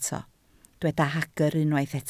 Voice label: "hagr", Welsh